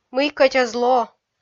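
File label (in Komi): "мый кӧть эз ло", Komi, female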